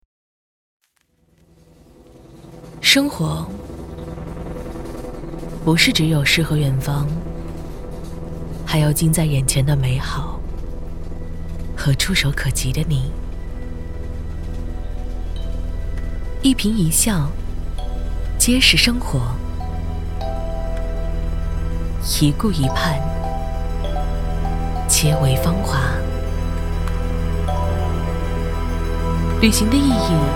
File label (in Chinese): 女 TVC 旅游系列宣传片; 龙华区2021年国庆旅游系列宣传片